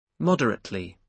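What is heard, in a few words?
"moderately", British English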